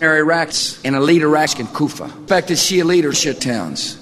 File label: marilaks in koofa speckdid schittowns, gibbrish; Voice